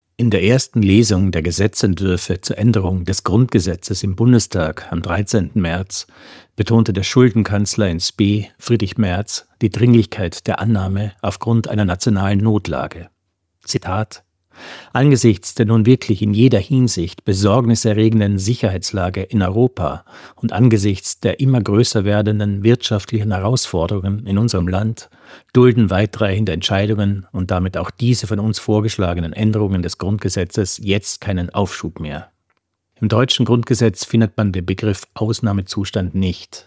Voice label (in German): Kommentar; Kontrafunk aktuell